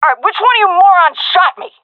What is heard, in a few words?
taking fire friendly, seal fem; vo; Counter Strike Model Pack; CS:GO